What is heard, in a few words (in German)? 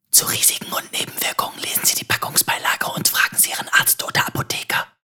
risiken und nebenwirkungen fluestern